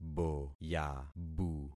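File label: "boyabu", concatenated syllables; Affixing experiment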